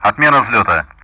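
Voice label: Speech Sound Russian; DCS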